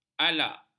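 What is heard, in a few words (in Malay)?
"alak", Malay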